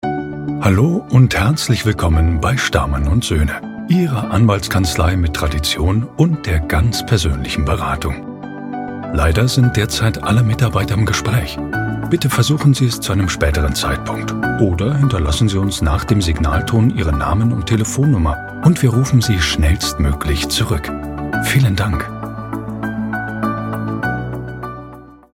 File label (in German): Hoerprobe Telefonansage; StahrmanUndSoehne